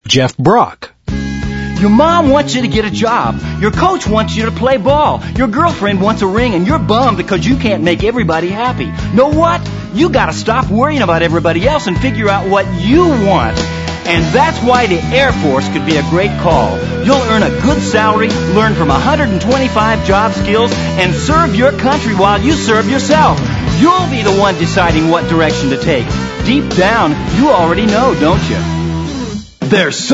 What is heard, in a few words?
male; Spotland Productions